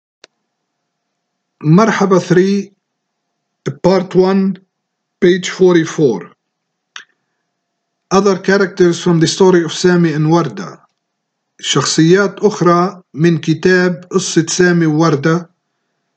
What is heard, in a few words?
levantine